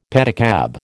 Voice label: "pedicab", American